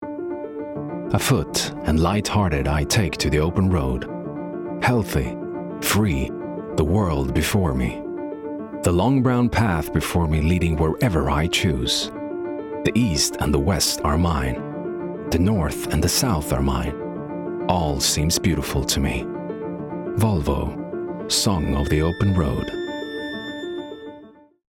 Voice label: Voice Over Commercial English with Transatlantic Accent; Volvo